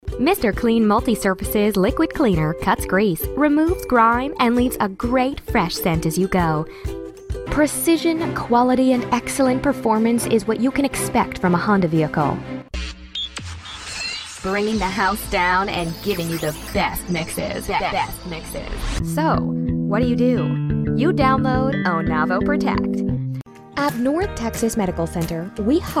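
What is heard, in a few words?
female, American; lektoring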